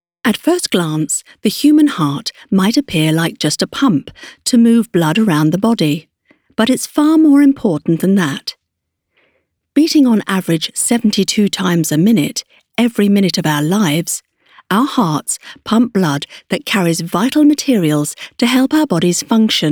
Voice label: Medical Narration